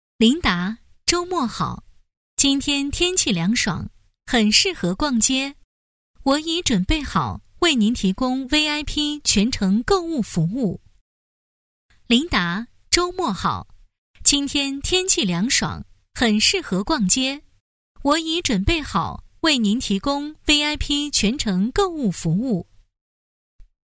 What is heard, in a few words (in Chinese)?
女 广告 机器人